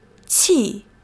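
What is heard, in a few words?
"qì", Chinese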